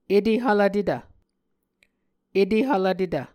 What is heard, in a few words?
"etehvlvtetv", Muscogee